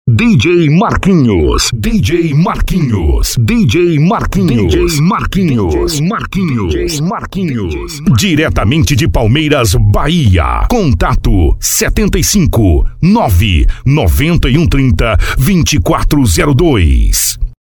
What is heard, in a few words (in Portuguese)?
Locutor Vinhetas Off Masterizada